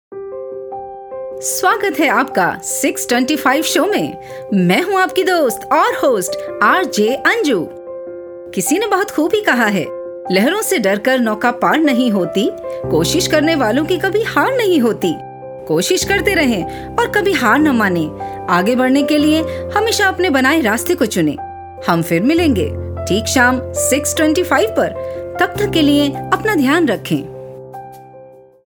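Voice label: Radio Show Hindi; Clavam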